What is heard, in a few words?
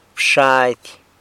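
"bxady", San Lucas Quiaviní Zapotec; chapulin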